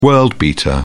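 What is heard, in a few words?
"world-beater", British English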